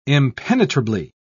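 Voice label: "impenetrably", British English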